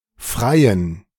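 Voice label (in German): "Freien", German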